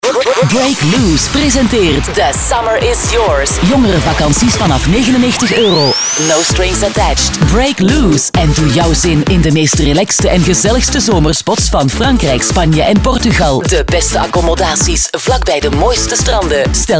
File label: commercial; breakloose summer is yours